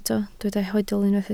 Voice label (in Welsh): "hoedl", Welsh